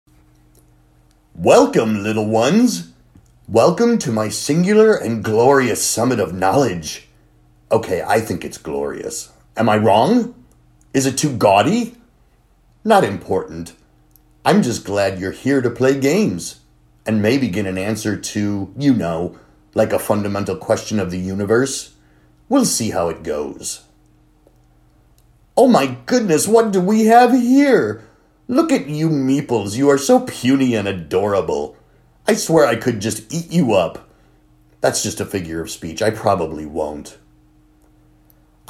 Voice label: Gaming Audition